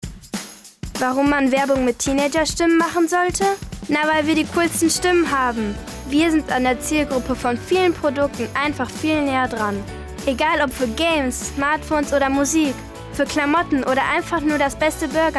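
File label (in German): Werbung German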